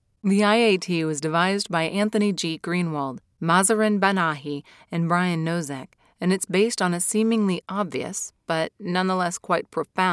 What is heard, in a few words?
Non Fiction, Audiobooks